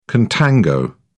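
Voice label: "contango", British English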